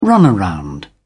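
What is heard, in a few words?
"runaround", British English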